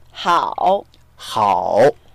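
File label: "hao3", Mandarin Chinese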